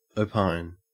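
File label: "opine", Australian English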